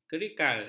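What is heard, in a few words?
"kerical", Malay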